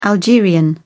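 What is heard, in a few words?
"algerian", British English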